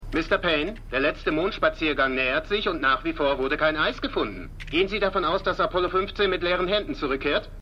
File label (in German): Reporter; For All Mankind